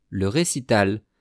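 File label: "le recital", French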